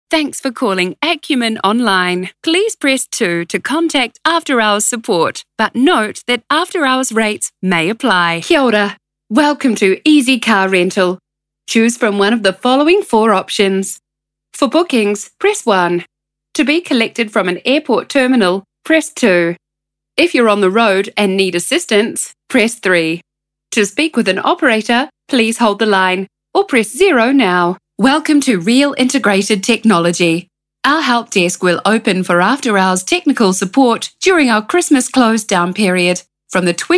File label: NZ phone